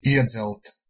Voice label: "iedzelt", Latvian